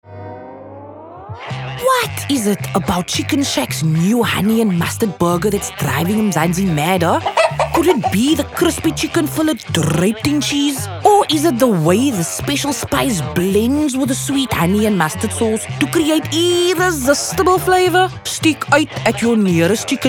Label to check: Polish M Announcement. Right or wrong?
Wrong